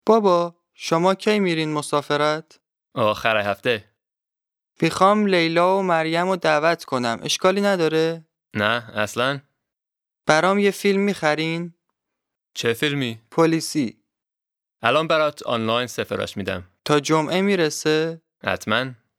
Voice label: Dialogue lesson Farsi